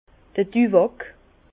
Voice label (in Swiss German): "duwack", Bas Rhin; vin; tabac